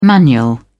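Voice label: "manual", English, British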